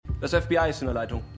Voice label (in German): Wachmann, Stimmproben